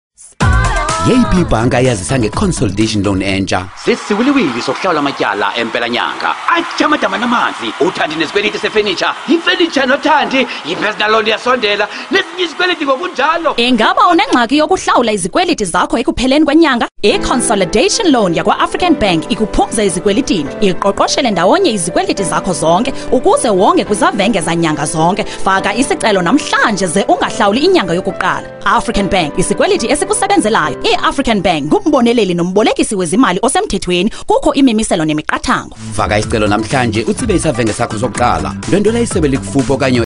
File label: xhosa radio ad